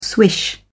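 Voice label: "swish", British English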